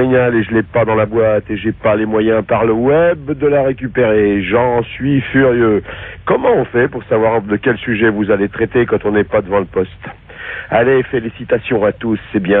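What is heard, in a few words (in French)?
Dopage répondeur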